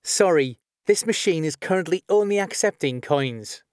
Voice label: cn only, announcements male